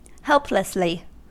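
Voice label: "helplessly", US English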